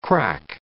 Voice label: "crack", English